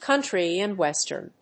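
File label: "country-and-western", English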